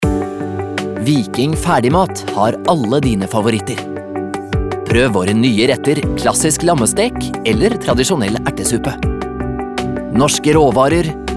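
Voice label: Norwegian commercial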